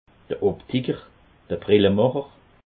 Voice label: "optiker", alsace

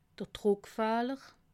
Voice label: "druckfahler", alsace

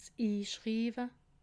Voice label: "inschiwe", alsace

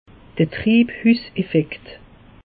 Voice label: "triibhuss effekt", alsace; meteo

